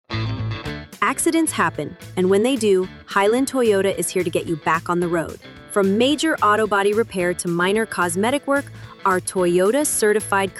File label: FEMALE